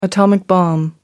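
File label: "atomic bomb", English, uk British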